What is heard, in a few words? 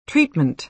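"treatment", American English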